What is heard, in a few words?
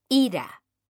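"ira", Spanish, female; mekanta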